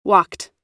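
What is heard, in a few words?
"walked", American English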